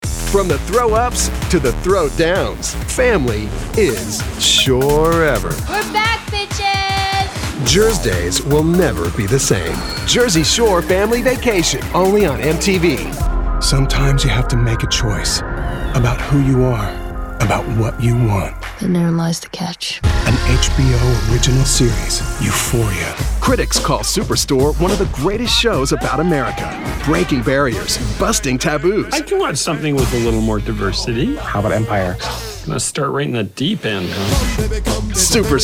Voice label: NETWORK PROMO